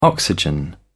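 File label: "oxygen", British English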